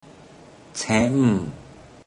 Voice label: "che`3m4", Teochew; Relatives